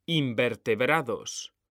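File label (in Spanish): "invertebrados", Spanish, male; mekanta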